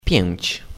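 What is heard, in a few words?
"Five", Polish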